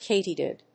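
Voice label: "katydid", English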